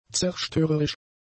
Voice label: "zerstörerisch", German; adjectives